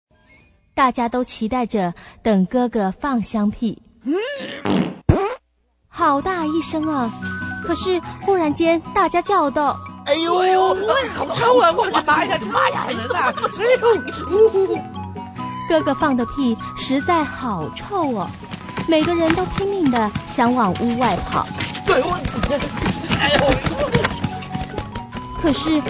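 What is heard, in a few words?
story farting chinese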